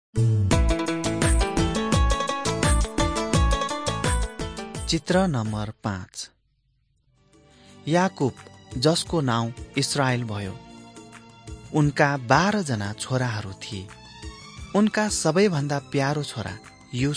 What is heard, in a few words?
mighty men of god nepali